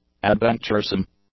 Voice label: "adventuresome", English; adjectives